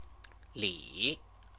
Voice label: "li", Chinese

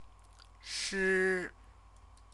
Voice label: "shi", Chinese

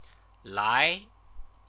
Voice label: "lai", Chinese

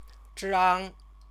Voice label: "zhang", Chinese

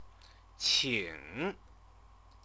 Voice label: "qing", Chinese

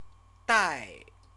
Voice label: "dai", Chinese

